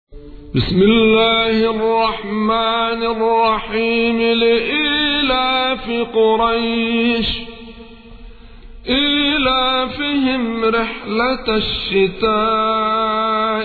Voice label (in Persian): ترتیل سوره قریش; محمد رشاد الشریف